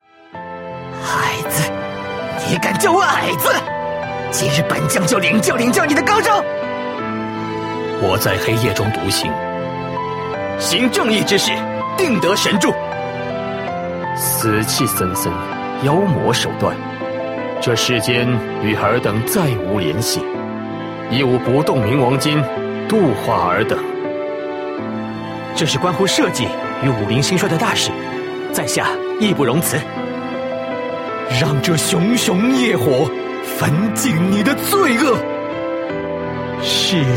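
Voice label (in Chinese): male, qingnian (young man)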